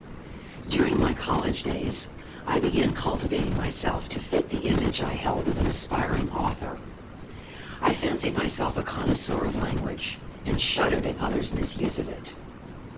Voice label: male noisy